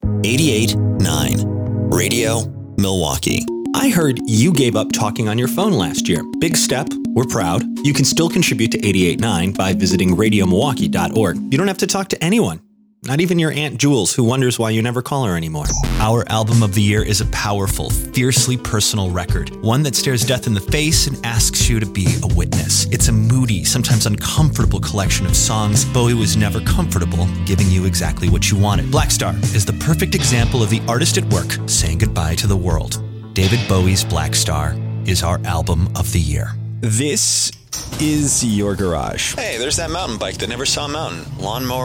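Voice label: Non Commercial Streaming Music voice over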